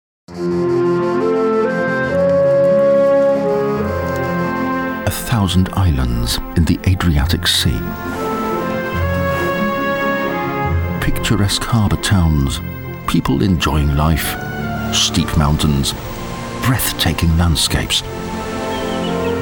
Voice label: OFF EN DE

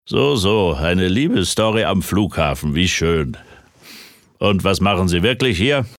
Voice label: Hoerspiel hochdeutsch

